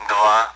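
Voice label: Speech, Russian, AWACS; Digits; DCS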